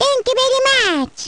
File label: "thank you very much", baby; baby mario